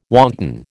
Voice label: "wanton", American